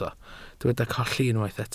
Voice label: "colli", Welsh

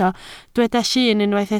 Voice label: "llun", Welsh

